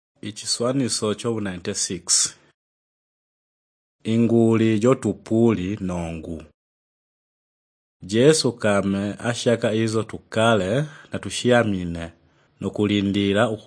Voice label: the living christ tonga shanjo, drama; chitonga; jesu mumi

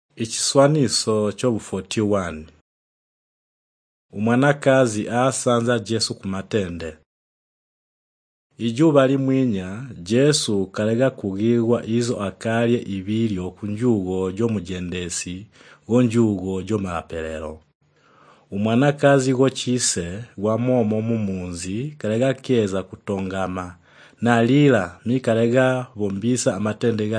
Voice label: the living christ tonga shanjo, drama; chitonga; jesu mumi

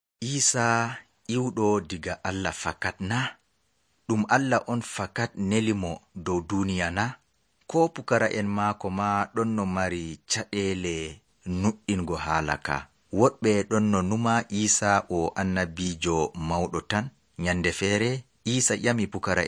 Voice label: the living christ fulfulde adamawa maroua, drama

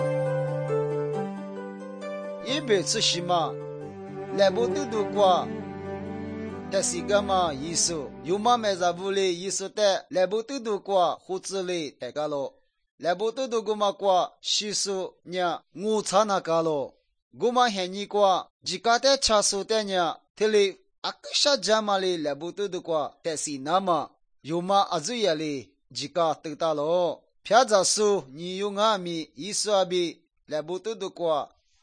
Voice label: good news lisu western, drama

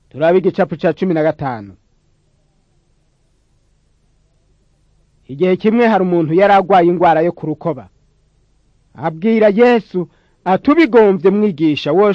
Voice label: jesus teacher and healer, kirundi, drama

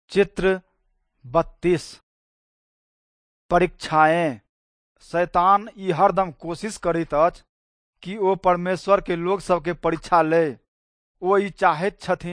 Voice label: nt drama, southern standard maithili; good news; wycliffe bible translators inc

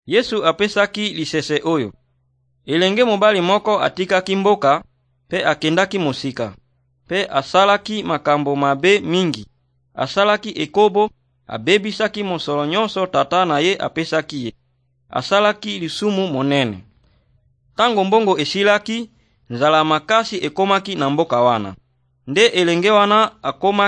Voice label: good news, lingala, drama; RDC

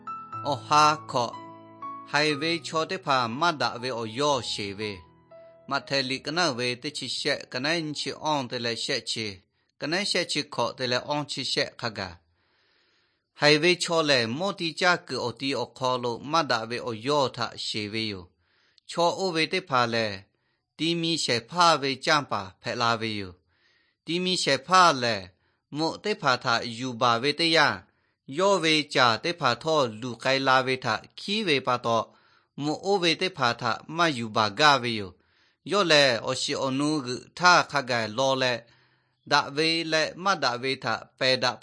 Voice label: nt drama, lahu na; jesus teacher and healer; thailand bible society

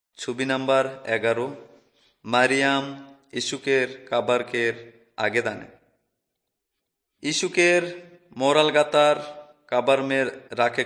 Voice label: nt drama, kurukh, urao; jesus lord and saviour

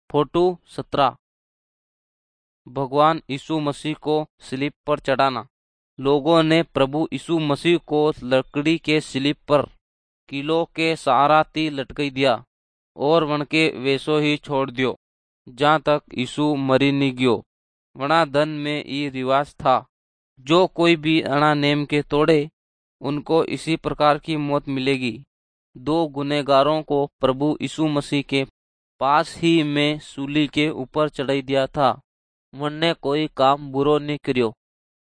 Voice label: nt drama, sondhiya; malvi; good news; new life literature

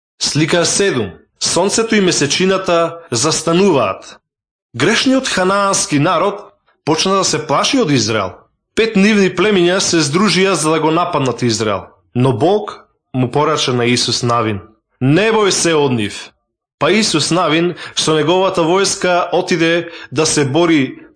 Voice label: victory through god, macedonian, drama

